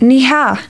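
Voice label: "no", female; TALKNOW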